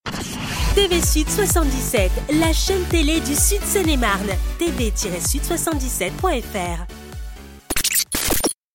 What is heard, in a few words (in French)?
Jingle Vidéo TV Dynamique; SUD 77 Numéro 2.